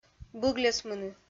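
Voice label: "быгльӧсмыны", Komi, female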